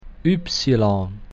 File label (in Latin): "ypsilon", Latin